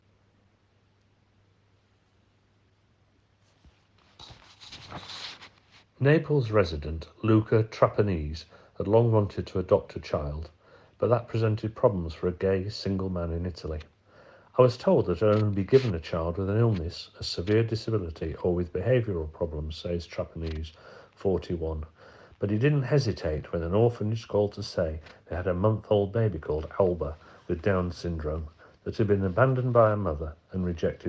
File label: News British